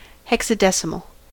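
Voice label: "hexadecimal", US English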